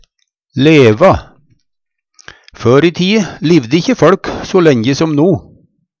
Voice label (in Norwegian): "leva", Numedalsmål; Verb